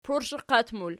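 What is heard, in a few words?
"pursheqat" plural, Archi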